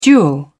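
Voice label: "duel", British English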